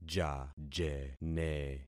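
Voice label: "jajene", concatenated syllables; Affixing experiment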